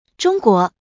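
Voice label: "zhongguo", Mandarin Chinese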